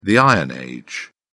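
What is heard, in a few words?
"iron age", British English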